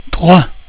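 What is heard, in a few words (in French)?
"trois", French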